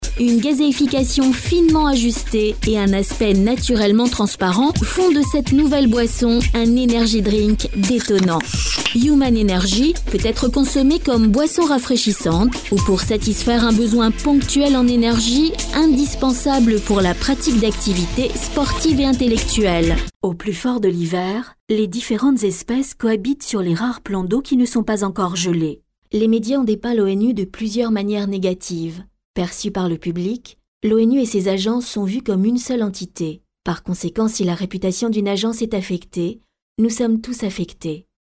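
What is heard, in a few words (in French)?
French, female, commercial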